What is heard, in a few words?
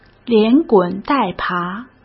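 "连滚带爬", Mandarin Chinese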